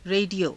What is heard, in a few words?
"re diyo", hindi